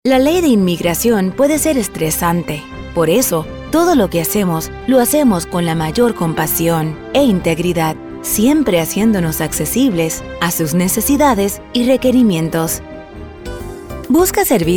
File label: IVR Spanish